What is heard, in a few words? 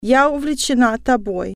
i am passionate about you female, russian